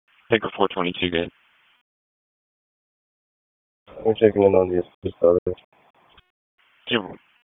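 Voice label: Police Southeast District Dispatch, P25; Raleigh; wake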